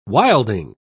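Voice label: "wilding", British English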